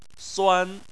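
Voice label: "suan", Chinese, first tone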